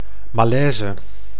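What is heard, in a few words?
"malaise", Dutch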